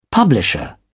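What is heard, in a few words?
"publisher", British English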